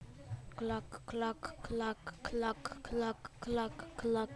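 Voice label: "clock", spoken word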